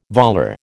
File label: "volar", American